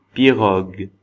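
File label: "pirogue", French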